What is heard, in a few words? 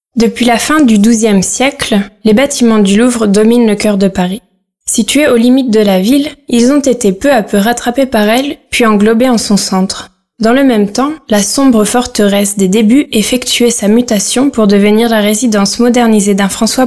documentary